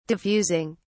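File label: "diffusing", en, British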